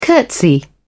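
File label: "curtsy", British English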